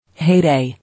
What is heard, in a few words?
"Heyday", English